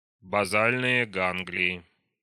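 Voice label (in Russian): "базальные ганглии", Russian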